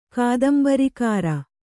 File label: "ಕಾದಂಬರಿಕಾರ", kannada